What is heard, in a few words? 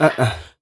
"uh uh", Voice; ooh ahh